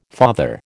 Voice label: "father", American